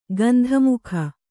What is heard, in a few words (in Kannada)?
"ಗಂಧಮುಖ", kannada